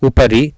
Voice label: "upari", Sanskrit